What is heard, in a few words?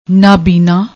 "naabina", Punjabi; ਨਾਬੀਨਾ